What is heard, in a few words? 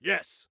"Yes", Soldier Male; Soldier Male 02